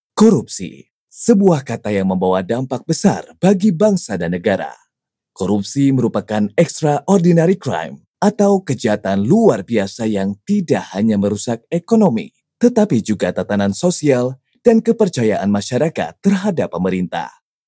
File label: formal; INDONESIA